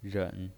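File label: "ren", Mandarin Chinese pinyin voice, third tone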